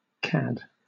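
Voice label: "cad", British English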